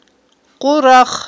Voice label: "къурах", Aghul

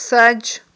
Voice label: "садж", Aghul

